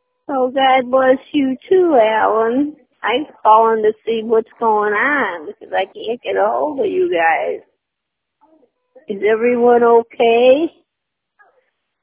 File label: voicemail